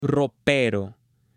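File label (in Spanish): "ropero", Spanish, male; MUEBLES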